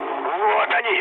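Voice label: Russian enemy detected mood med, speech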